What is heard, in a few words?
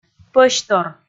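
"пӧсьтор", Komi, female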